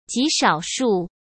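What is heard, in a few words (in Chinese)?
"极少数", Mandarin Chinese; hsk; chinese-flashcards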